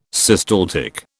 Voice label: "systaltic", British

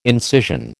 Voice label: "incision", American

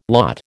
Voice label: "lot", American

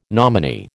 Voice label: "nominee", American

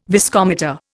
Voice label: "viscometer", Indian

